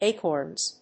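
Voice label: "acorns", English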